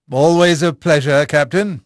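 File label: English male; Pirates Of The Caribbean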